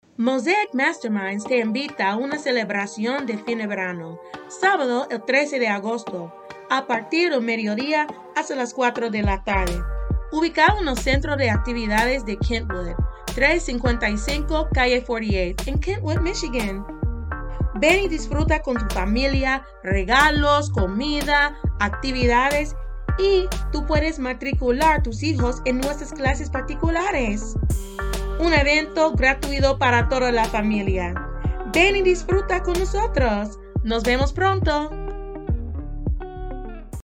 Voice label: Promo Spanish; A Summer Celebration